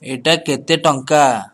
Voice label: how much odia